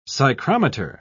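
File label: "psychrometer", British English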